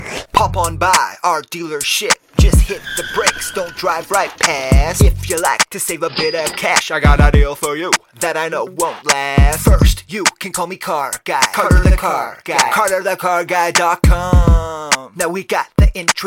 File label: Is Calling Rap